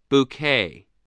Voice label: "bouquet", spelling